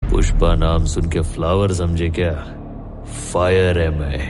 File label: Dialogue Ringtone; Pushpa